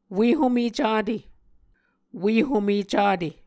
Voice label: "ue home cate", Muscogee